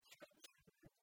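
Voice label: "шойччинныд", Komi, female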